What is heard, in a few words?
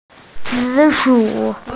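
"zi3shu3", Mandarin Chinese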